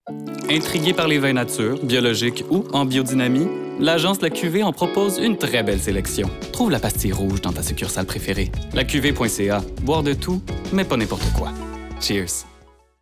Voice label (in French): Publicité; La QV